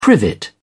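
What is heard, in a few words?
"privet", British English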